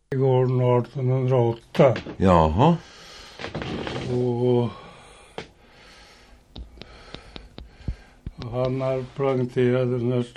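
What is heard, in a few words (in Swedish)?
Säby Västmanland, dialekter; Svenska sagor; Folkminnen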